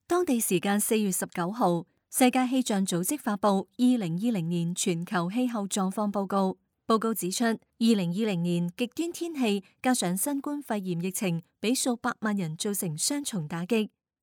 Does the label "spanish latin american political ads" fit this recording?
No